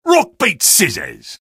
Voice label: sniper taunt rps win

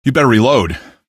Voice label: you'd better reload, male; Source Engine; video game; npc

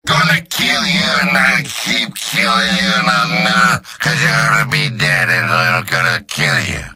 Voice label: gibberish

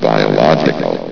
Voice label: "biological", vox; Source Engine; bshift

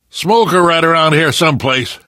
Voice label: heard smoker, survivor voice

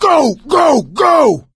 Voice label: emphatic go, survivor voice; source engine; left 4 dead 2

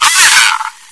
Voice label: oh yea, bot; Source Engine; cstrike

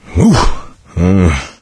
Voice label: pain relief pills, coach, survivor voice; video game; source engine; left 4 dead 2

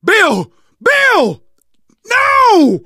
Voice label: grief, survivor voice; Left 4 Dead 2; Source Engine; video games; manager

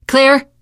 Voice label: area clear, teen girl; source engine; left 4 dead 2; survivor

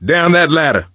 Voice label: misc directional, coach, survivor voice

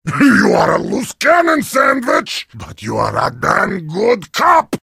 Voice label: heavy sandwich taunt, vo

